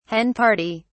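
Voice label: "hen party", British English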